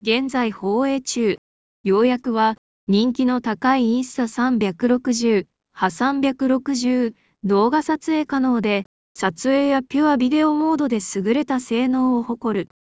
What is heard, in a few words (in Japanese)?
AIボイス